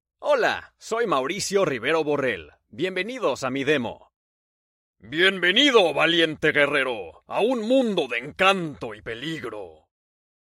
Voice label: animation spanish